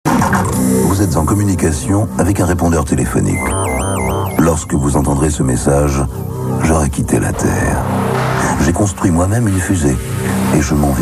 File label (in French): Repondeur Telephonique